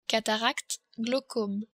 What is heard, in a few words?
"cataracte", french